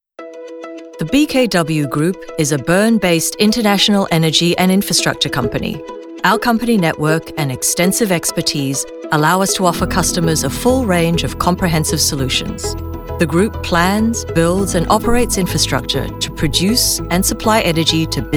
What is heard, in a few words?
Corporate, voiceover